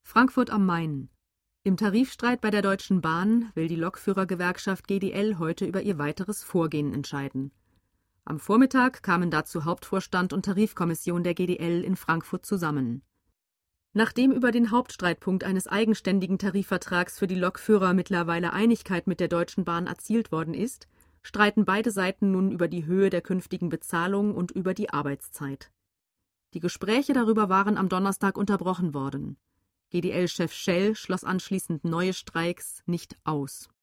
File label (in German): Nachrichten